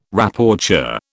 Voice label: "Rapporteur", British

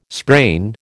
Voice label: "sprain", American

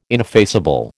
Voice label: "ineffaceable", American

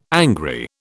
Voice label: "angry", British

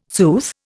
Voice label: "sooth", Indian

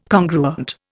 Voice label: "congruent", Indian

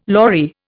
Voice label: "lorry", Indian

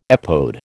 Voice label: "epode", American

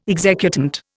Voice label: "executant", Indian

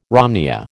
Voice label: "romneya", American

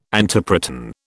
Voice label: "antiproton", British

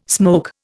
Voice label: "smoke", Indian

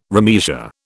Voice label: "remeasure", British

